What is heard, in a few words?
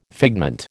"figment", American